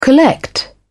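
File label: "collect", British English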